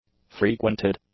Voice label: "frequented", English

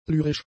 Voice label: "lyrisch", German; adjectives

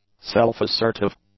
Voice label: "self-assertive", English; adjectives

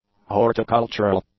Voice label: "horticultural", English; adjectives

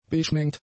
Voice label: "beigemengt", German; adjectives

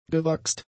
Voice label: "gewachst", German; adjectives

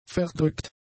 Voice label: "verdrückt", German; adjectives

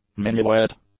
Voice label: "minuet", English

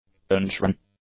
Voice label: "unshorn", English; adjectives

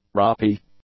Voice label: "ropy", English; adjectives

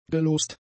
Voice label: "gelost", German; adjectives

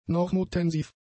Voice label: "normotensiv", German; adjectives

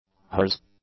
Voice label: "hers", English

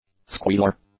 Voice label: "squealer", english; nouns

